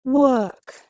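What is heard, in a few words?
"work", English word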